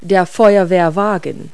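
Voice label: "fire engine", English words; Multi-Lingual Talking Picture Dictionary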